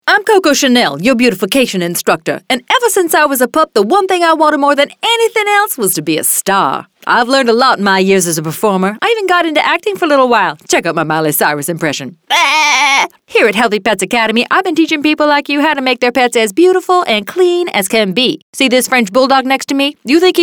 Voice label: Sassy Attitude Urban